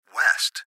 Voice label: Gps Voice, Male; Laptop Speaker Adult Male; Recorded Voice

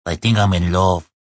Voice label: Think Im In Love, Male; Talking; VoiceBox

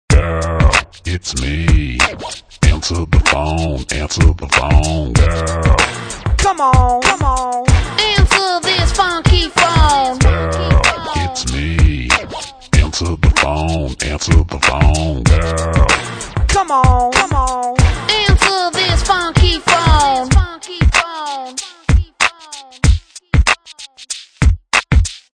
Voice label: Girl Its Me; Ringtone

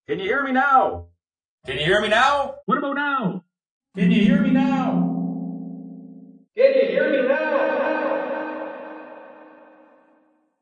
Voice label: Can U Hear Me Now, Male

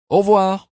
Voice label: Goodbye French, Male

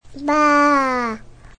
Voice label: A toddler says “baaa” about a sheep.; Talking

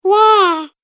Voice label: "Yeah", Kids Cartoon Game Voice; Recorded Voice Sound Effects

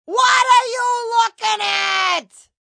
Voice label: What You Looking At, Attitude, Female

